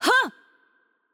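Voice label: voice over, Japanese; Change Player; bloom minigame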